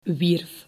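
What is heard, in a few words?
"wierf", Dutch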